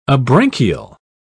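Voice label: "abranchial", English, British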